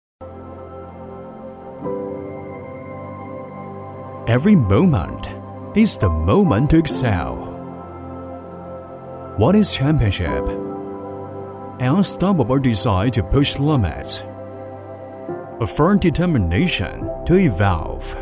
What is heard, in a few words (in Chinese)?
男 英语 旁白; 什么是冠军